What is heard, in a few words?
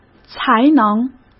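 "才能" Mandarin Chinese; chinese flashcards; hsk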